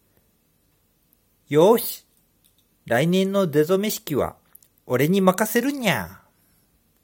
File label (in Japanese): アフレコ